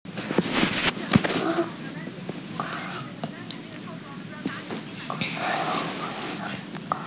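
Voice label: "ge1bo5", Mandarin Chinese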